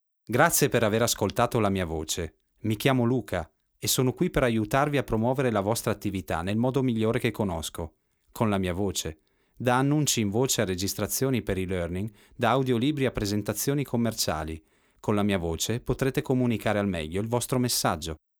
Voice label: ITALIAN MALE LEARNING INTRODUCTION